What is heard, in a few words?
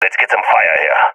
request fire, balkan; vo; balkan epic